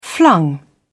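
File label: "flung", British English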